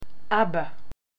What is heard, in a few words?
"father", Hebrew